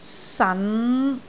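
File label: "san5", Cantonese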